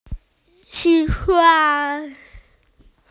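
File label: "wish", Mandarin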